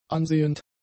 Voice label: "ansehend", German; adjectives